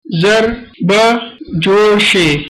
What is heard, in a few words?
get well soon, pashto